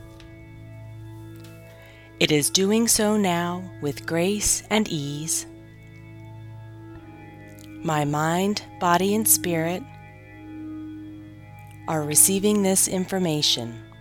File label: Being Grounded meditation w music